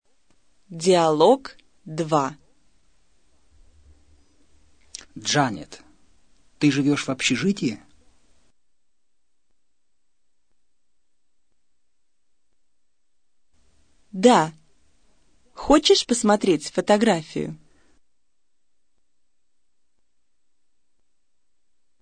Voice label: dialog, russian